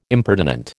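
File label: "impertinent", American English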